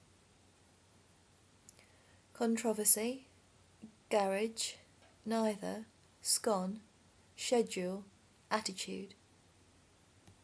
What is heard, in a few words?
colne lancashire female